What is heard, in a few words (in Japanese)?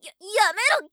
や、やめろ！, ギャル; ハイグレボイス集